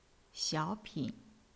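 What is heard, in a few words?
"xiao3pin3", Chinese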